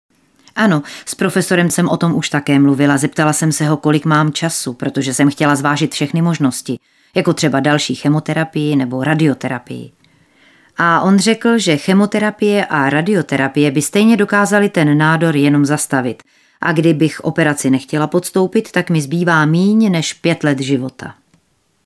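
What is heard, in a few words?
Female Czech documentary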